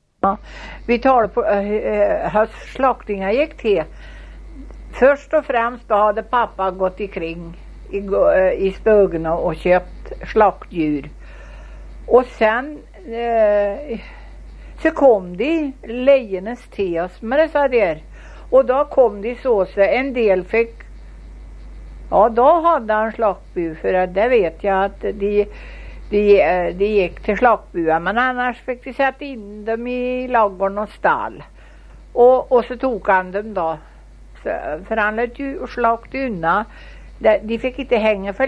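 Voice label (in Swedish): Köla Värmland, dialekter; Svenska sagor; Folkminnen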